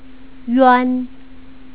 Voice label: "yuan", Chinese